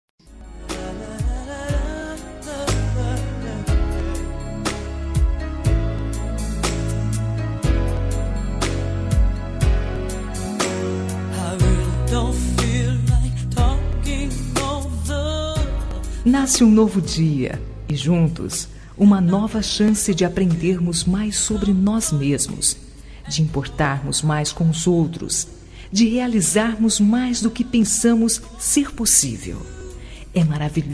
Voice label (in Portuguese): Romântica Suave